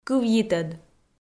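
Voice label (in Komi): "кывйитӧд", Komi, female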